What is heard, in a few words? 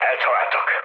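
Hungarian aircraft damaged mood low, speech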